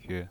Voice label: "yue0", pinyin